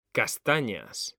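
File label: "CASTAÑAS", Spanish, male; mekanta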